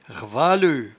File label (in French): "rvaleut", patois jura